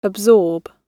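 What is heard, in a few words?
"absorb", British English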